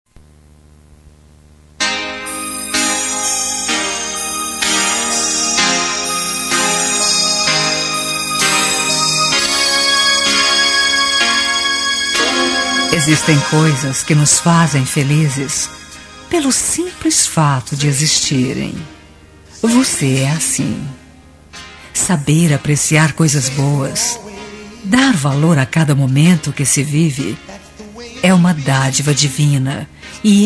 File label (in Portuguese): ROM OBRIGADO POR ONTEM FEM